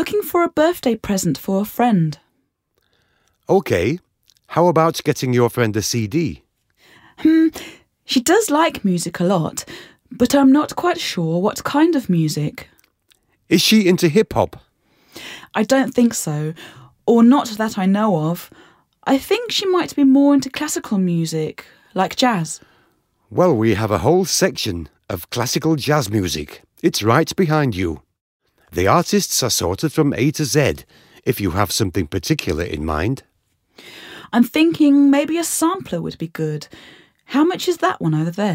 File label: Conversation; Training